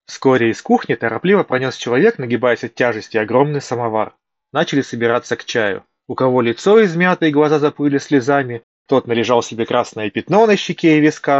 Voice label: Mic; xiaomi buds 6